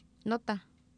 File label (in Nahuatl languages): "notah", Oapan, female; Oapan minimal pairs 1998